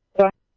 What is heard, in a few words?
Phoenix Fire, scanner; PHXFD K7; RWC; H-Scottsdale